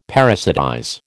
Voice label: "parasitize", American